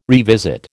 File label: "revisit", American